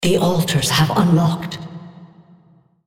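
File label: female, On Start Game Message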